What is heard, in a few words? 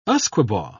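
"usquebaugh", British English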